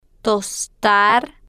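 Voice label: "tostar" Spanish, female, slow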